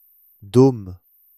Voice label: "dôme", French

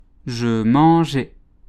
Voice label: "je mangeai", French

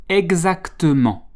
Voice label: "exactement", French

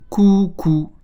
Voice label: "coucou", learn French

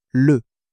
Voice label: "le", French

